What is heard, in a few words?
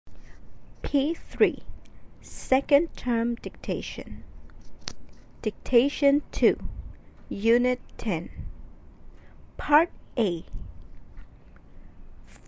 Dictation